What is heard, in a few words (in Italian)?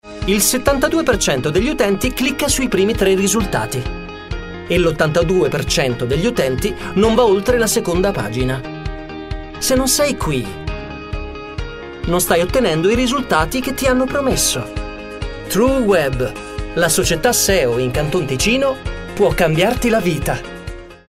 Spot; TrueWeb